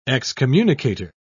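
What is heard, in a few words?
"excommunicator", British English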